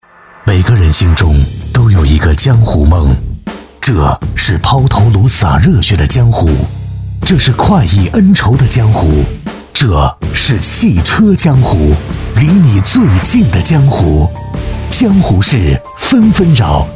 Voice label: 男S347 国语 男声 广告-汽车广告-台宣-大气稳重 大气浑厚磁性 沉稳

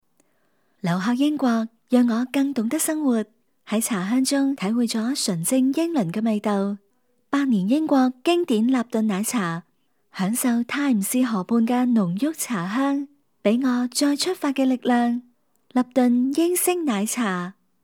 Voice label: 女粤16 港式粤语广式粤语 娓娓道来 积极向上 时尚活力 亲切甜美

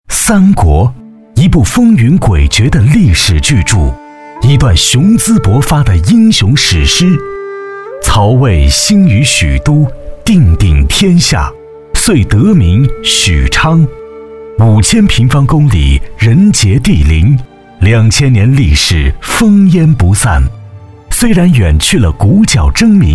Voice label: 男S347 国语 男声 专题片-三国解说-成熟抒情 大气浑厚磁性 沉稳

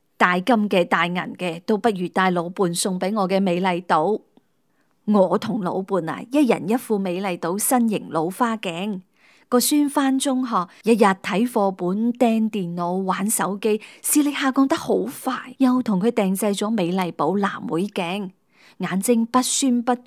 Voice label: 女粤16 粤语人物角色; 美丽岛（何巧珍）; 素人

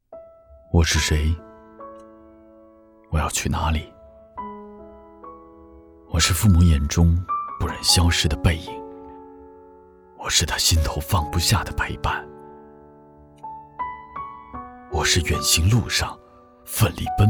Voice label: 男声 内心独白—我是谁风格 低沉 调性走心 感人煽情 素人, 国语

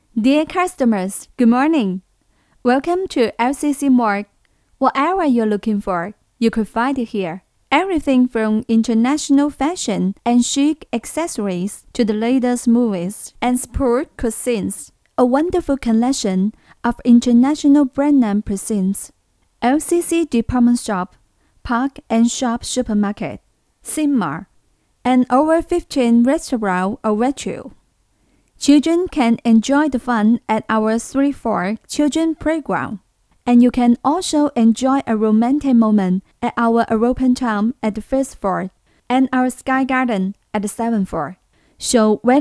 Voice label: 英语女声Corporate narration 娓娓道来 积极向上 亲切甜美

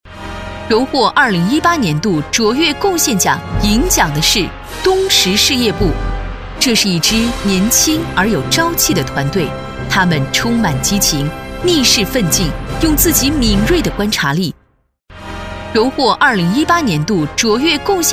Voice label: 女S112 国语 女声 颁奖词 大气颁奖词 大气沉稳 激情激昂 大气浑厚磁性 亲切甜美

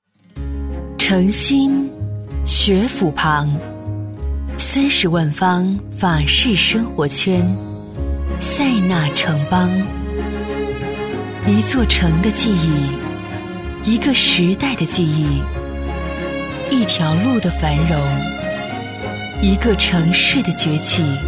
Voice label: 女S112 国语 女声 广告-楼盘地产 低沉品质 积极向上 神秘性感 调性走心 亲切甜美; 国人英语英文

